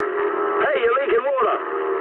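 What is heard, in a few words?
damaged water mood medium, speech English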